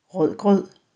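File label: "rødgrød", Danish; Danish food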